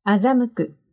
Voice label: "azamuku", Japanese; to decieve

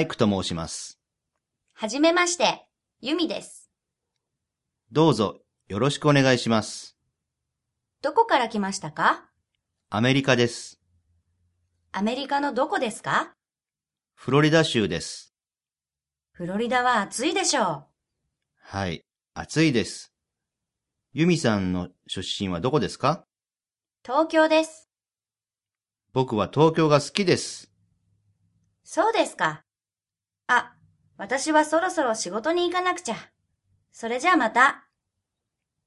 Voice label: Japanese Dialogue

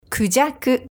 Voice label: "kujaku", Japanese

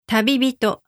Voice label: "tabibito", Japanese; traveler

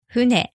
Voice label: "fune", Japanese; boat; ship

